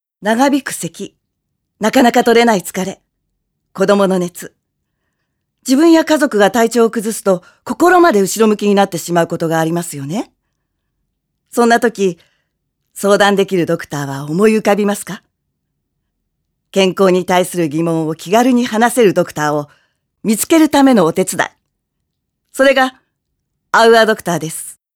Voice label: female voice